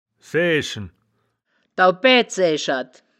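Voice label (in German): "saeaeschen", wittlicher woerterbuch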